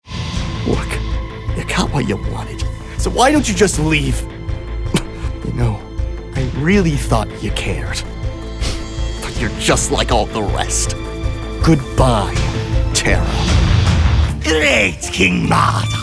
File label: vo character